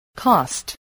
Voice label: "cost", voice spelling